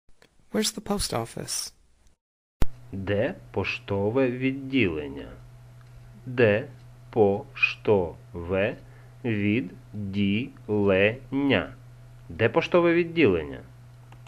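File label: Ukrainian, Where is the post office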